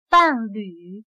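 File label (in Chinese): "伴侣" Mandarin Chinese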